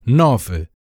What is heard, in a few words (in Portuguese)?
"nove", Portuguese; numbers in portuguese